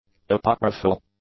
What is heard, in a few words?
"apocryphal", English; adjectives